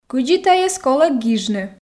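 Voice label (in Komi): кыдзи тайӧс колӧ гижны, Komi, female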